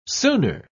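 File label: "sooner", British English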